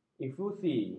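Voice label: "efusi", Malay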